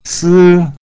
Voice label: "si1" Mandarin, first tone

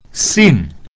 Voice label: "xin4" Mandarin; (a letter)

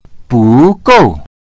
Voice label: "bu2 gou4", Mandarin; not enough

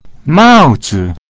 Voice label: "mao4 zi3", Mandarin; a hat

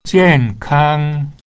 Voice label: jian kang, chinese; healthy

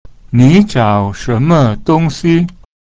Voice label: "ni2zhao3shen2medong1", Chinese

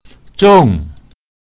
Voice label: "zhong4", Mandarin; (heavy); pinyin

